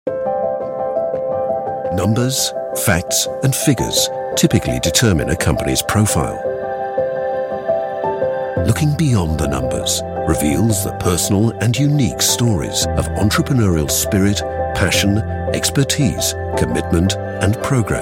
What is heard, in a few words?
corporate